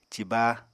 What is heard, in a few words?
"ciba", Apatani